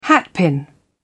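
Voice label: "hatpin", English, uk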